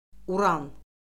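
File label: "uran", Azerbaijani; Orfoqrafiya Lüğəti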